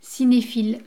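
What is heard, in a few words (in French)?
"cinephile", French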